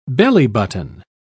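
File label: "bellybutton", British English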